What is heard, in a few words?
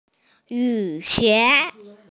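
"yu xie" (rain boots), Mandarin Chinese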